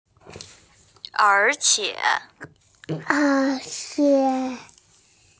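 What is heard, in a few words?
"er3qie3", Mandarin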